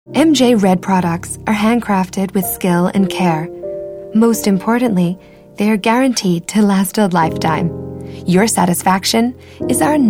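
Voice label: American, narration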